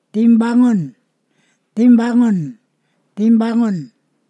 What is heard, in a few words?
"similar", Ponosakan